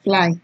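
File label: "fly", Nigerian Pidgin, female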